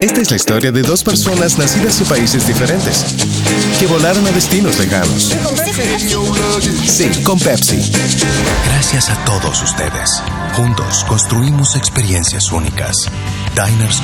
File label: Spanish Neutral, male voices